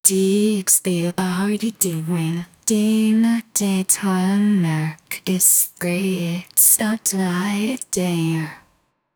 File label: deep masculine, voice over